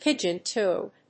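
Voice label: "pigeon-toed", English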